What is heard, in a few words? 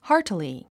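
"heartily", English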